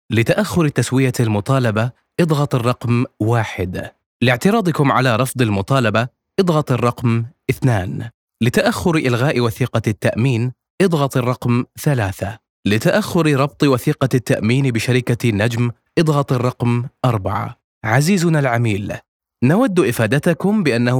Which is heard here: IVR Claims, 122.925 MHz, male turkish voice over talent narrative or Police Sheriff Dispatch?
IVR Claims